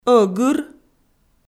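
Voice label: "ӧгыр", Komi-Zyrian, female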